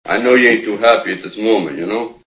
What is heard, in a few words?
happy; halflife